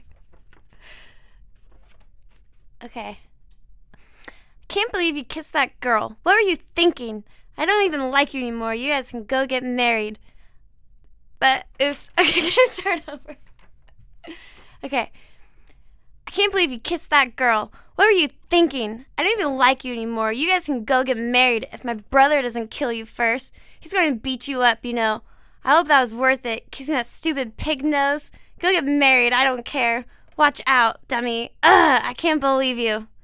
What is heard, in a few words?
Dialog; chase